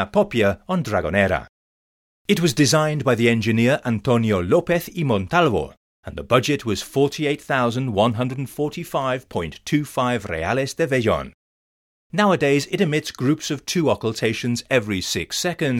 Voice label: lighthouse audioguide; mahon